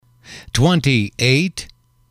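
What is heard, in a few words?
"twenty eight", English, male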